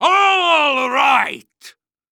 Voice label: Cartoon Loud Voice "Aaaaalright"